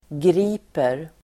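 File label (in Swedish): "griper", Swedish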